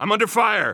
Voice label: radio taking fire; vo; Counter Strike Model Pack; CS:GO; phoenix coop